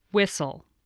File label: "whistle", spelling